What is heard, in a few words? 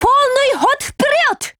ATTACK JP; M1891Mod